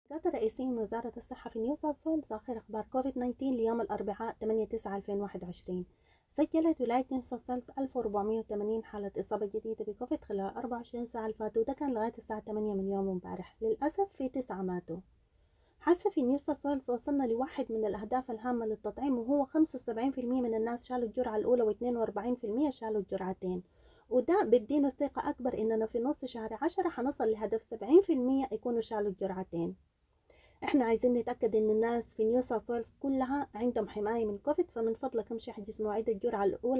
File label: sudanese; covid-19 coronavirus; covid-19 daily key points